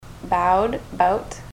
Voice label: bowed bout, ontario